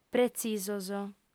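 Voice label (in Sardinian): "pretzisos", female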